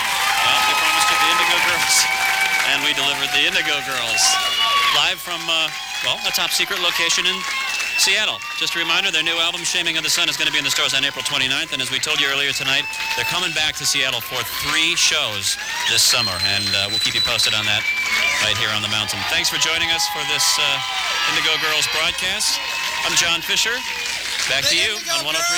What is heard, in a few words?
announcer